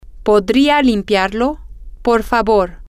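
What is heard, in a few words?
will you clean it please, Spanish, female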